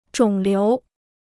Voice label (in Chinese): "肿瘤", Chinese